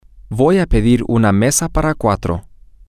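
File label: Spanish, female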